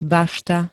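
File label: "bašta", Slovak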